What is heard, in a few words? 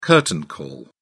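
"curtain call", British English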